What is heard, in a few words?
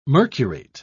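"mercurate", British English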